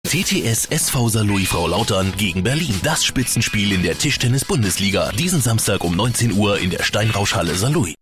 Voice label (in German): werbung; berlin 2011